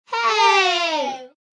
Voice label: "Hey", Male, child